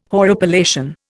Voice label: "horripilation", Indian